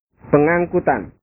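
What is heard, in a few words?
"pengangkutan", Indonesian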